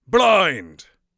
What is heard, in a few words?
blind, mage voice; Mage Voice Pack